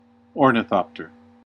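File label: "ornithopter", American English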